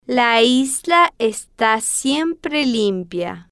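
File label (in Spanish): la isla esta siempre limpia, Spanish, female, slow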